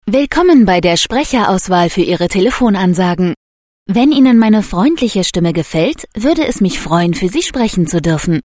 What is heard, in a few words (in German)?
INTRO deu freundlich